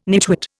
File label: "nitwit", Indian